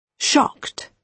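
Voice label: "shocked", British English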